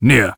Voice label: Warrior emotion disagree

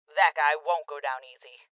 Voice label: Voices Heavy Spotted Female; rebel cops 2019 gamerip

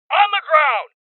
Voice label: Voices Order To Surrender Male

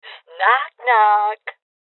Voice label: Voices Door Kick Female Old; rebel cops 2019

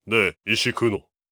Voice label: angry

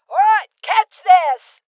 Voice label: Voices Throw Clip Female Old; rebel cops 2019 gamerip

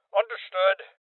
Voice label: Voices Confirmation Male Old

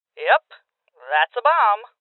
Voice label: Voices Bomb Spotted Female; rebel cops 2019 gamerip

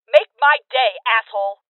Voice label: Voices Freeze Female

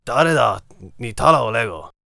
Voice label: warrior conversation emotion neutral

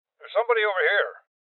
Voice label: Voices Enemy Spotted Male Old; rebel cops 2019 gamerip

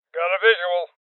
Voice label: Voices Enemy Spotted Male Old; rebel cops 2019 gamerip

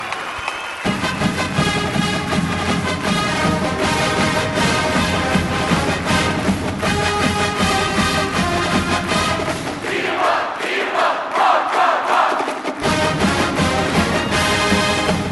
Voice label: Broadcast; NCAA Basketball 09; PS2; PS3; Xbox 360

